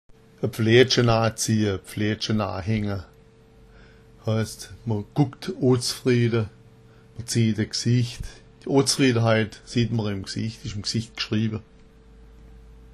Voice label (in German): pflaetscha, schwaebisch